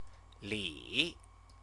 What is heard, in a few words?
"li", Chinese; CTCFL; University of Oxford